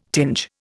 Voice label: "tinge", Indian